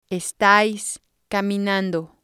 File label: "estais caminando", spanish